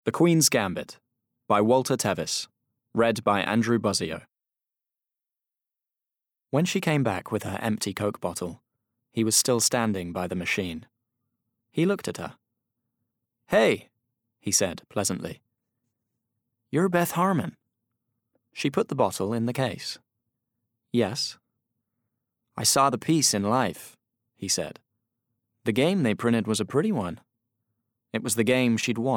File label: Narrative